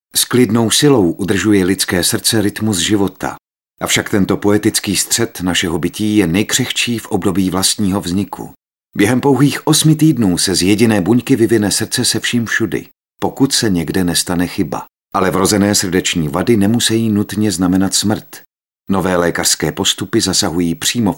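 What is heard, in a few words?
male Czech documentary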